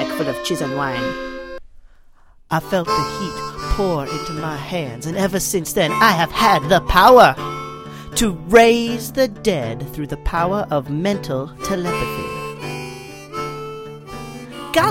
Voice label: character voiceover